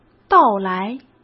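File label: "到来", Mandarin Chinese; hsk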